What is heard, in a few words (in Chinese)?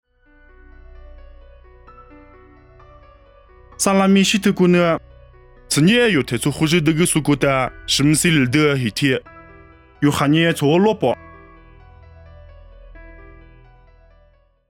凉山彝语; 地中海撒拉米